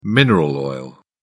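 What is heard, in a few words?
"mineral oil", British English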